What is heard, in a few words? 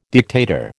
"dictator", American